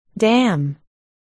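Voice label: "dam", spoken word, articulation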